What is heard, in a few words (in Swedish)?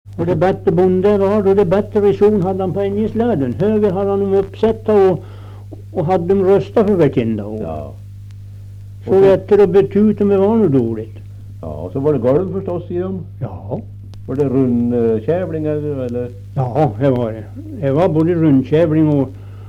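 Österfärnebo Gästrikland, dialekter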